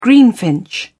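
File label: "greenfinch", British English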